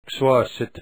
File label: angry